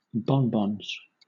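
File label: "bonbons", English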